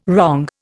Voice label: "wrong", Indian

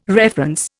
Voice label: "reference", Indian

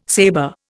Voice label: "saber", Indian

